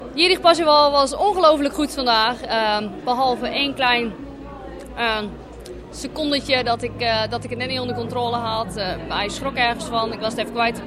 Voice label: DUTCH; Day1